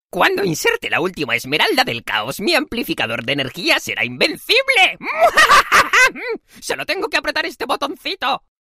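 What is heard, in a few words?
European Spanish, Characters; GoLocalise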